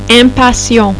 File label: "impatient" "impatient", French; Personality